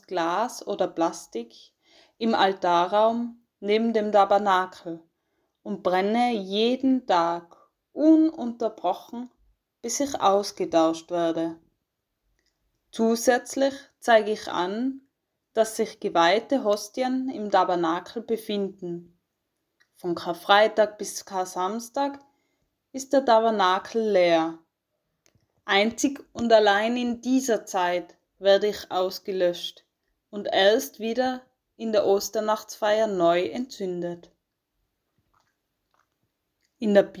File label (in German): Audioguide Ewiges Licht; Kinderliturgie